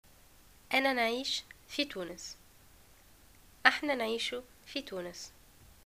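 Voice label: live in tunis, Tunisian Arabic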